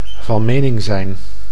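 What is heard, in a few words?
"van mening zijn", Dutch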